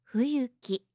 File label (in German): "fuyuku", japanische maedchennamen